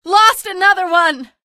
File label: evac casualty, female; episodic; episode 1; Source engine